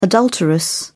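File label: "adulteress", British English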